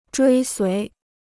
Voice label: "追随", Chinese